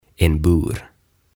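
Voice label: "En Bur" Swedish; Häkki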